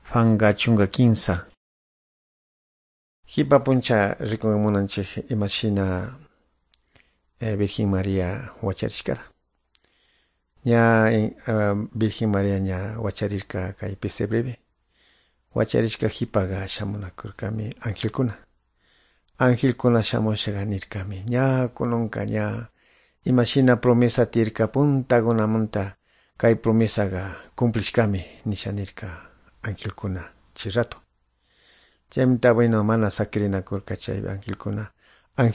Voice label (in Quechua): good news quichua de calderon